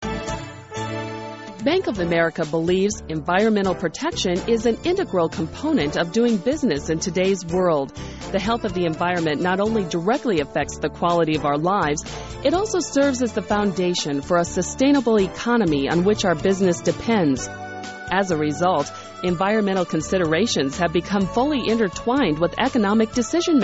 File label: voiceover female